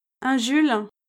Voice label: "un jules", French

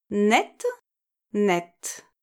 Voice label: "net" "nette", French

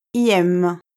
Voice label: "ième", French

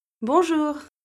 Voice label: "bonjour", French

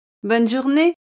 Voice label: "bonne journée", French

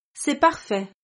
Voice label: "c'est parfait", French

